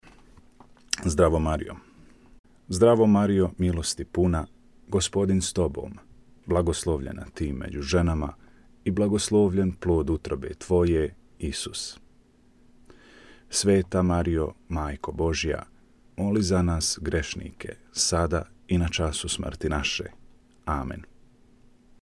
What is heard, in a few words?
Hail Mary Croatian